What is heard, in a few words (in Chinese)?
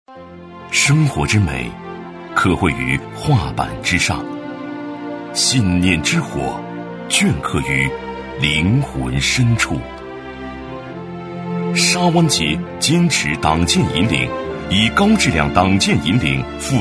男 党建专题; 沙湾新画卷; 成熟厚重